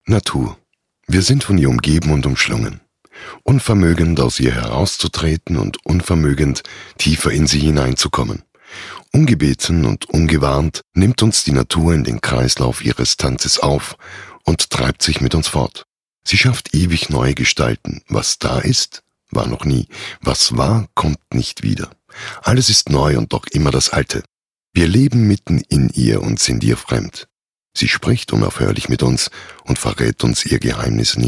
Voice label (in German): VO Doku; Goethe